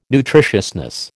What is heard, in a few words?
"nutritiousness", American